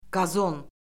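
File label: "qazon", Azerbaijani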